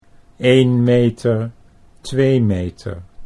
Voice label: "1 meter 2 meter", Dutch